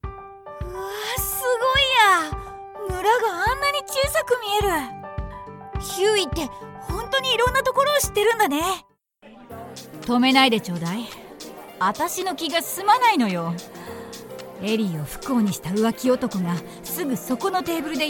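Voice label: Japanese character mix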